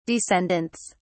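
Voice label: "descendants", British English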